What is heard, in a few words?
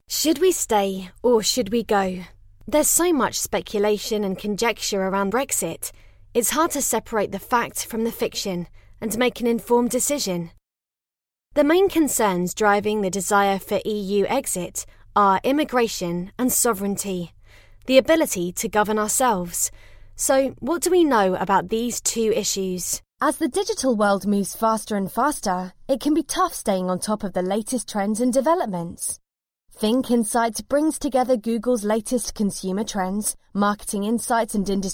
Corporate